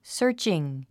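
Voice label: "searching", English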